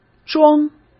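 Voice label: "桩", Mandarin Chinese; hsk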